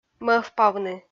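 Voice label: "мӧвпавны", Komi-Zyrian, female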